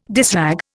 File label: "dishrag", Indian